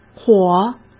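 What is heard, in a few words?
"伙", Mandarin Chinese; chinese-flashcards; hsk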